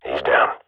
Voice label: radio bot kill, swat; Counter Strike Model Pack; CS:GO